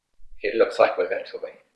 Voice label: Voice Acting, es